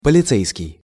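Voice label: "policejskij", Russian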